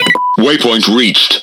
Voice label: Speech Autopilot Checkpoint, EN GB; World Of Warships